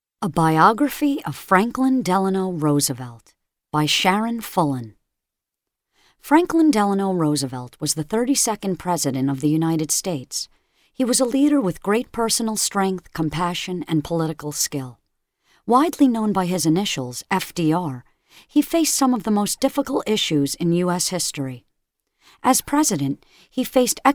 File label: Audiobook; FDR